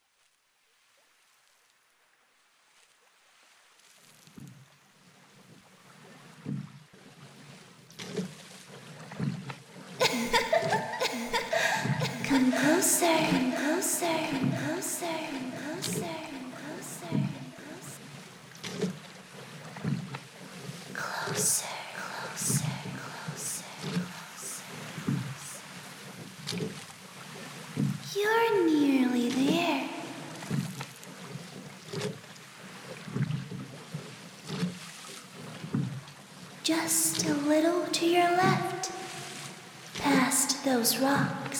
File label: ASMR Yandere Roleplay; Lonely Siren Wants Your Company